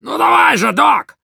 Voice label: demoman activate charge, ru